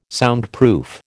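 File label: "Soundproof", American English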